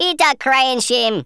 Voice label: oh dear, Rasta

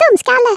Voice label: "stupid", Swedish

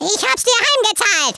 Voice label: REVENGE, Speech, German; Worms Armageddon

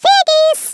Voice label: "COWARD", Swedish

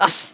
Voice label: ooff, Irish; Worms Armageddon

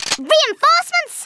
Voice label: "COLLECT", Scouser

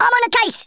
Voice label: "yessir", Speech, Geezer; Worms Armageddon

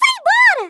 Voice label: "GO AWAY", Portuguese; Worms Armageddon

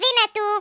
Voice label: "GO AWAY", Greek; Worms Armageddon

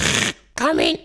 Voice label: "orders", Danish; Worms Armageddon; Danish

